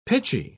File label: "pitchy"